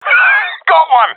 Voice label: i killed enemy, professional; vo; Counter Strike Model Pack; CS:GO